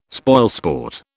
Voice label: "spoilsport", British